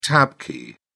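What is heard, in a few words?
"tab key", British English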